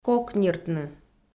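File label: "кок ниртны" Komi, female; audio dict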